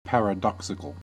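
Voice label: "paradoxical", American English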